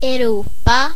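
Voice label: europe, Swedish; gcompris-data; geography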